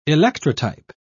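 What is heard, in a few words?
"electrotype", British English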